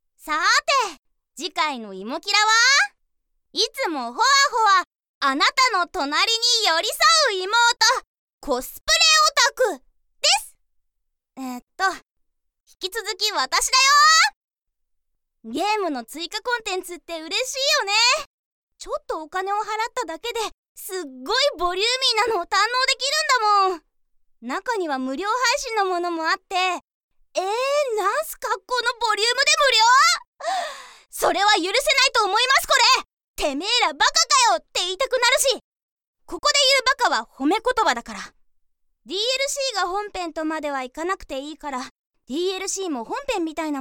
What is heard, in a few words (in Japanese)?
次回予告（BGMなし）